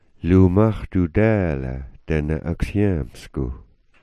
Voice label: "aksyaamsk", Smalgyax